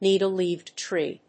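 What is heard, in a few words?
"needle-leaved tree", English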